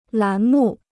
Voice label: "栏目", Chinese

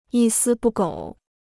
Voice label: "一丝不苟", Chinese

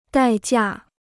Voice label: "代价", Chinese

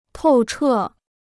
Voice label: "透彻", Chinese

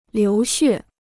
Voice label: "流血", Chinese

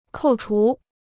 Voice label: "扣除", Chinese

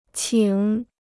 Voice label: "请", Chinese

